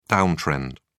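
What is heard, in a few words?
"downtrend", British English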